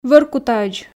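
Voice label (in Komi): "воркутаӧдз", Komi, female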